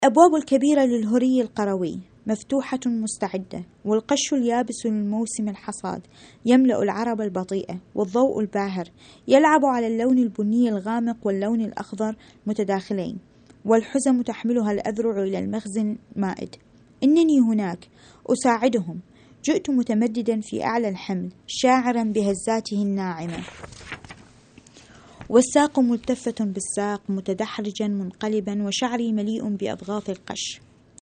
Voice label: arabic